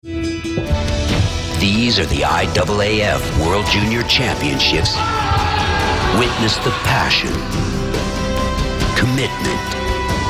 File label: COMMERCIAL